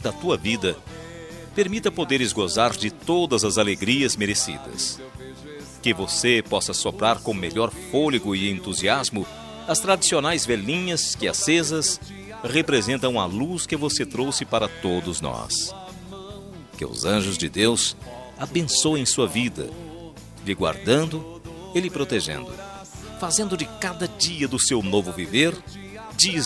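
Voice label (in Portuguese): religiosa masculina ave maria, Aniversário Religioso – Voz Masculina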